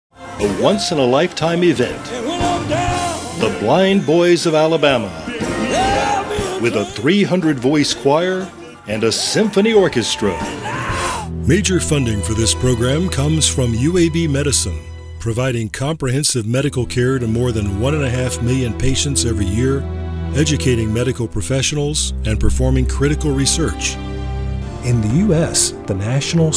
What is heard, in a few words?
spots